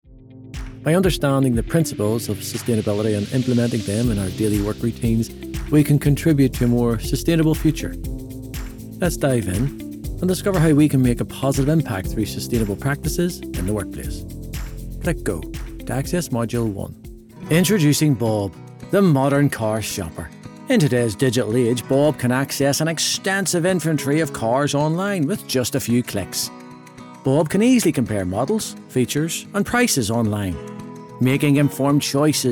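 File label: Male Corporate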